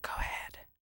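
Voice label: "go ahead", voices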